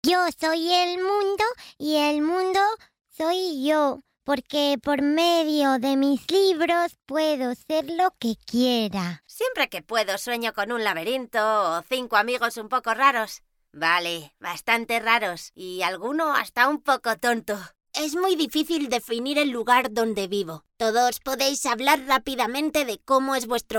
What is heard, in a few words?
female spanish castilian child and teen character compilation